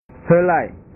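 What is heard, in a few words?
"helai", Indonesian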